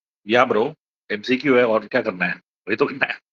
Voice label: voice message